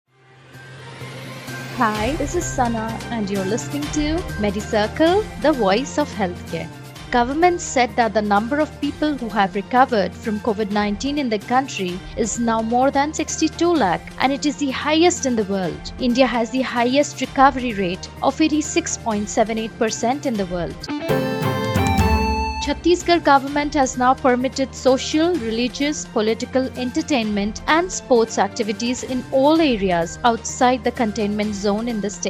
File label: english news